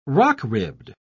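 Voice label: "rock-ribbed", British English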